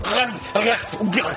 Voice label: confused; jontron